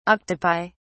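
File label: "octopi", English, British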